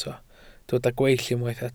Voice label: "gweill", Welsh